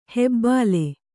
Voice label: "ಹೆಬ್ಬುಲಿ", Kannada

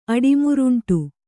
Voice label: "ಅಡಿಮುರುಂಟು", Kannada

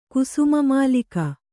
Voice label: "ಕುಸುಮಮಾಲಿಕ", Kannada

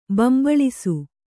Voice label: "ಬೊಂಬಾಳಿಸು", Kannada

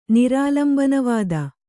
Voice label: "ನಿರಾಲಂಬನವಾದ", Kannada

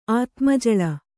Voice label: "ಆತ್ಮಜಳ", Kannada

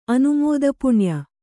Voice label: "ಅನುಮೋದಪುಣ್ಯ", kannada

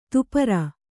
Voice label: "ದಪ್ಪರಿ", Kannada

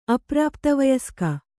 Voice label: "ಅಪ್ರಾಪ್ತವಯಸ್ಕ", kannada

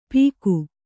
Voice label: "ಪೀಕು", Kannada

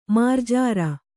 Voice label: "ಮಾರ್ಜಾರ", Kannada

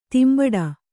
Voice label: "ದಂಬಡಿ", Kannada

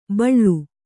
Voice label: "ಬಾಳ್", Kannada

